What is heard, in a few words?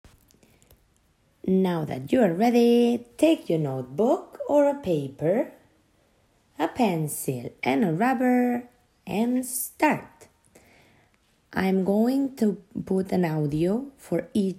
Dictation